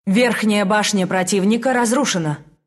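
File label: Announcer tower fall enemy top ru; dota2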